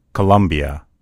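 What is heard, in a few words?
"colombia", English; gcompris; geography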